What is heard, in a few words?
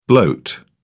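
"bloat", British English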